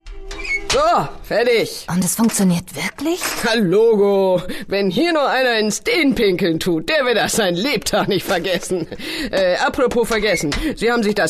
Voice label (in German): Werbung Dialekt